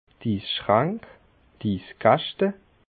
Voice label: "isschrank", alsace

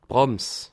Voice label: "brams", alsace

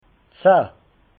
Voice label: "zehn", alsace

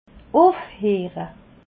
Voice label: "ufheere", alsace

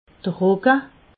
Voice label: "rogge", alsace

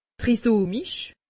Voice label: "trisomisch", alsace; medecine

